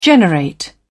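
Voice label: "generate", British English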